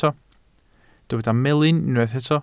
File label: "melyn", Welsh